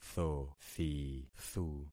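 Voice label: "thothithu", concatenated syllables; Affixing experiment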